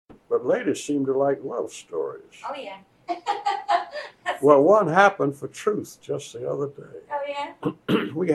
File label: USA North Carolina Costal Southern Male 80s